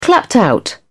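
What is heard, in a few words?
"clapped out", British English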